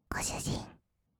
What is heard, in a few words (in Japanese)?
ご主人, kawaii voice; ASMR; 二人称; 1200 kawaii voice!!